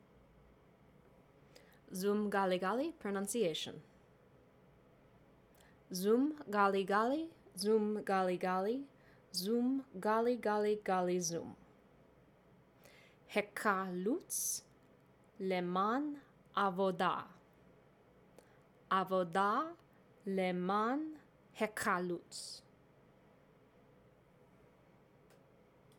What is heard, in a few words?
Zum Pronunciation